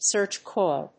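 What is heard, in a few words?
"search coil", English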